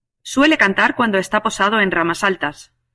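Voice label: "cantar", Spanish; mozilla voice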